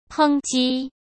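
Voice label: "抨击", Mandarin Chinese; hsk; chinese-flashcards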